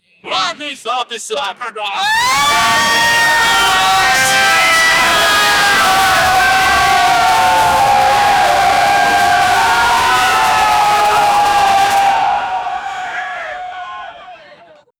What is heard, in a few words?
tv sports announcer voice, gibberish